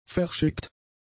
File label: "verschickt", German; adjectives